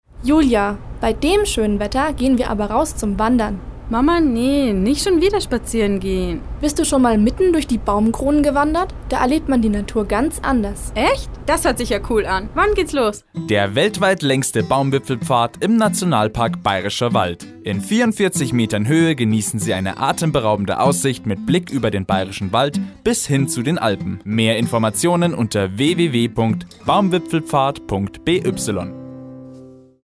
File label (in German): Werbung Ohne Jubilaeum; Erlebnis Akademie